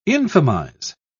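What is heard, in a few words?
"infamize", British English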